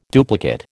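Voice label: "duplicate", American English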